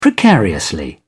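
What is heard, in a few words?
"precariously", British English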